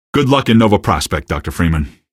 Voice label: citizen, male; Garry's Mod; hl2; odessa